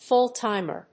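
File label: "full-timer", English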